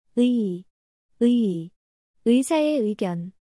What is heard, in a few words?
speech "yi", korean